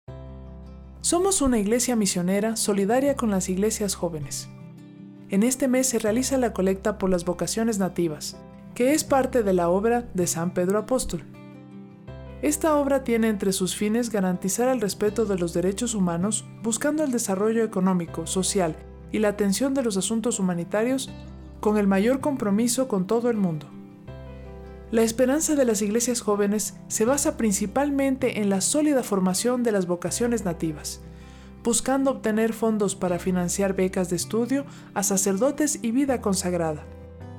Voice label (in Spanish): spot F; Vocaciones Nativas